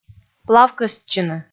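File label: "плавкӧстчыны", Komi, female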